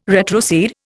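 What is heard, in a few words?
"retrocede", Indian English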